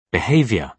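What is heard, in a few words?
"behaviour", British English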